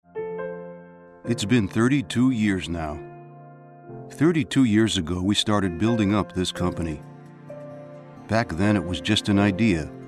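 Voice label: us englisch, US English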